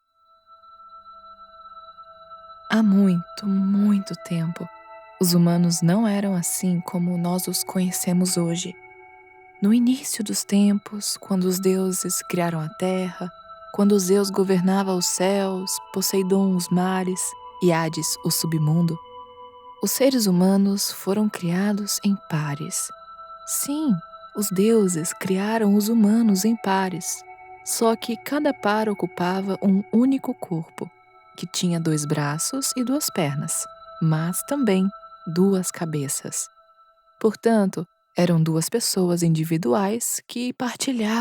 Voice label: storytelling bedtime narration female brazilian portuguese; voiceover; MeditopiaApp